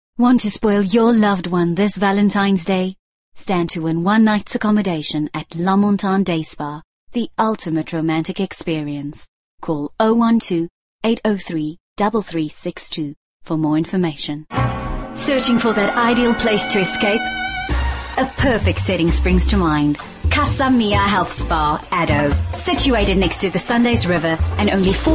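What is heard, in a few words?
English South African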